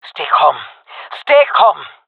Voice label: scared, jungle fem epic; vo; agents; Source Model Packs; Counter Strike Model Pack; CS2

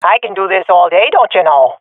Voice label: last unit alive, swat fem; vo; agents; Source Model Packs; Counter Strike Model Pack; CS2

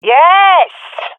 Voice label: compliment, swat fem; Counter Strike Model Pack; CS:GO

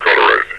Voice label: "cauterize", metropolice vo; Half Life Alyx

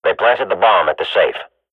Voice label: radio bot bomb at safe, swat; Counter Strike Model Pack; CS:GO

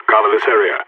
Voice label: radio bot hold; Counter Strike; CS:GO; phoenix

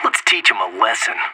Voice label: radio lock n load; anarchist

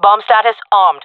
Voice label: bomb planted, fem; player vo jungle fem

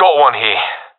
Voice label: sees one enemy, professional epic; Source Model Packs; Counter Strike Model Pack; CS2

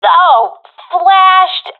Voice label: blinded, professional fem; vo; Counter Strike Model Pack; CS:GO

